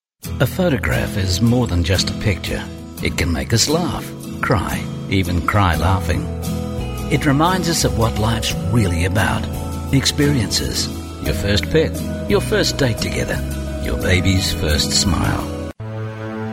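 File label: English Australian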